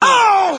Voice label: "oh" male